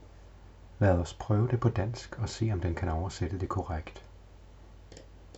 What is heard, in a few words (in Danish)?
whisper danish